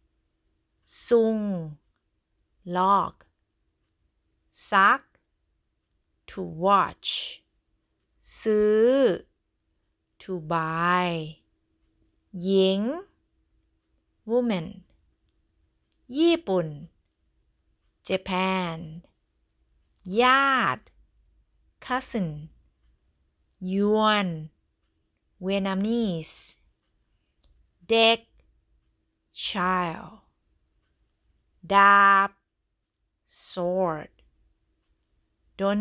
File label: Learning Thai words